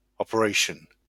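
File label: "operation", British English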